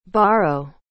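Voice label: "borrow", English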